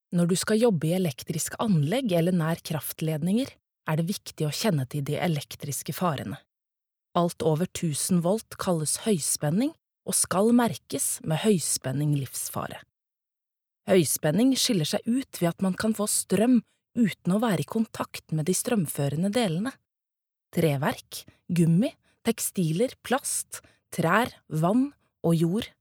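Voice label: Norwegian e-learning, norwegian female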